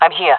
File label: in position, jungle fem; player vo jungle fem